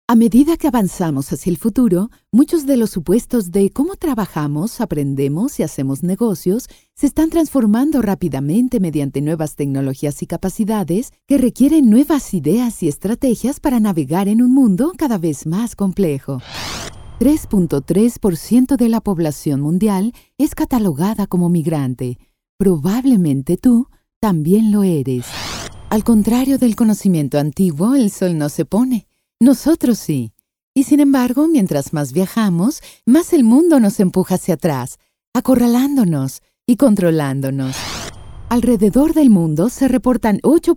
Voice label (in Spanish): Narración